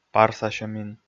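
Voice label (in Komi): "парсасьӧмин", Komi, male; audio dict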